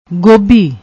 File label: "gobhi", Punjabi